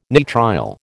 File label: "nitrile", American